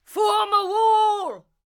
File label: Ranged Female Chokepoint Line, dialogue